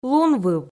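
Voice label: "лунвыв", Komi, female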